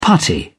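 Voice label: "putty", British English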